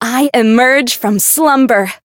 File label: variable chant; mayu